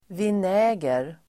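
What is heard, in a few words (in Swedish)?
"vinäger", Swedish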